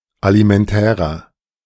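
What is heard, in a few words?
"alimentärer", German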